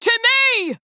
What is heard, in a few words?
Female Human Attack